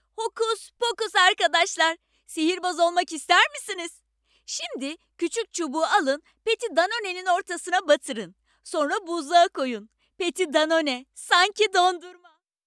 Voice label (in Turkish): enerjik; danone